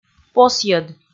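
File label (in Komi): "посйӧд" Komi, female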